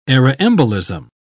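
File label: "aeroembolism", British English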